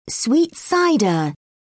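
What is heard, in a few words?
"sweet cider", British English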